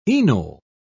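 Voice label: "enol", British English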